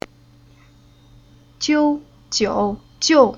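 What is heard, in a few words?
"Jiu", Chinese syllable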